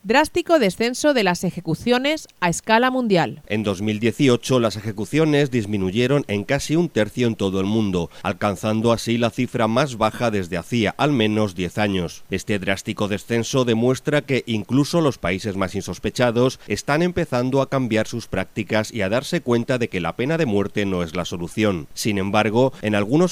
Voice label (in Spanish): informativo; drastico descenso mundial ejecuciones